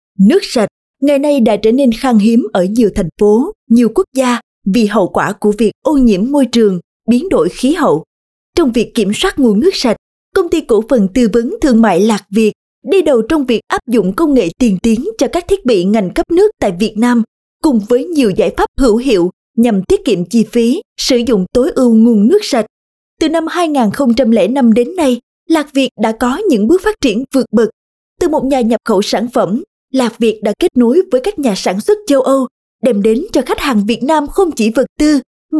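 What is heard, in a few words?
Vietnamese South Female; Lạc Việt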